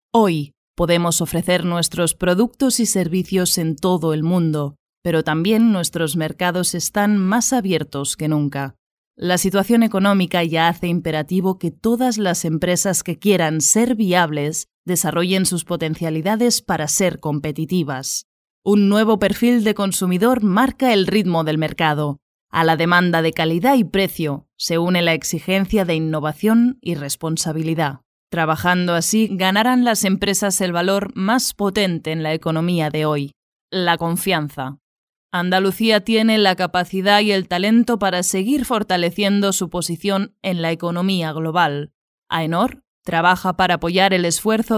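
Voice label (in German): Spanish Dynamisch; Fremdtake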